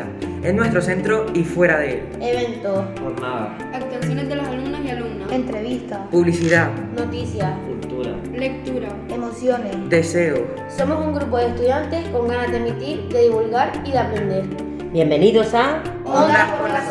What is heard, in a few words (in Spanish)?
PUB RADIO con musica